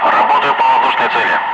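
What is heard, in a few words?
Russian speech, Ka-50 Wingman; Messages engaging bandit; DCS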